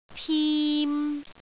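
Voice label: "tim3", Cantonese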